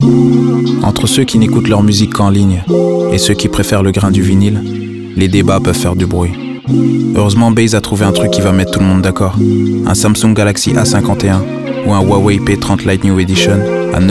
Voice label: radio fr music; handset duo